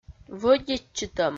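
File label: "вӧдитчытӧм", Komi, female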